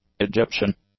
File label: "Egyptian", english; adjectives